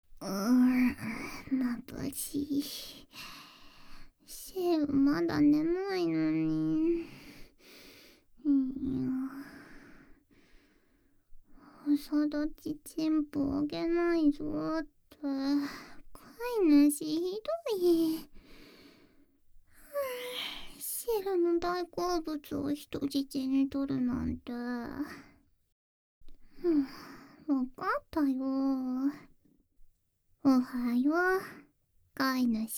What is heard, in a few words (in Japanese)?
アラーム 音声 (おはよう); シロ; メスガキ猫を甘わからせ!!